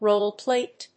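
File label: "roll plate", English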